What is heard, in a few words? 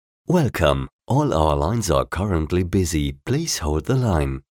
englisch